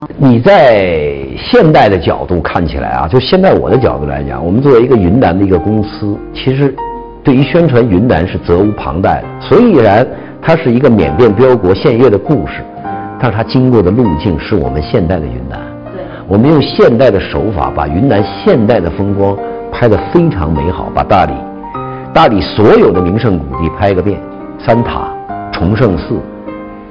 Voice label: interview